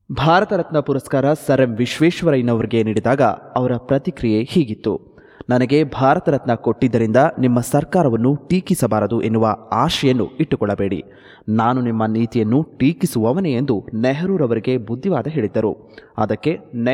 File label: Male Kannada VO